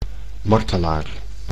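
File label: "martelaar", Dutch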